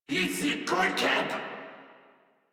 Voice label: Surprised Crewmen; Warframe; Corpus Crewmen; Crewman D